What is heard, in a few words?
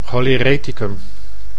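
"cholereticum", Dutch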